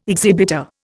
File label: "exhibitor", Indian